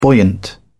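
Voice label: "buoyant", British English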